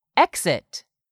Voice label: "exit", English; 3000 words